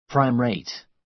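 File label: "prime rate", American English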